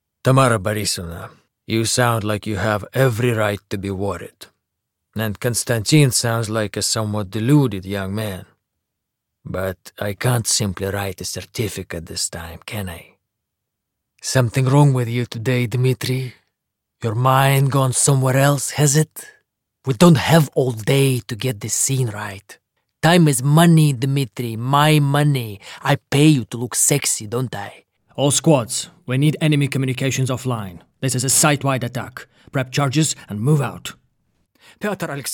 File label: English